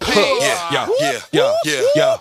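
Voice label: "huh yeah yo yeah yo yeah yo", vocal sample; ooh ahh; heh huh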